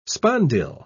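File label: "spondyl", British English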